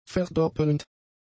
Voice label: "verdoppelnd", German; adjectives